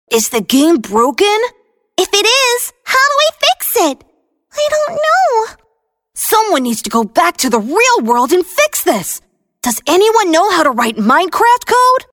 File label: Kids boy and girl; e-learning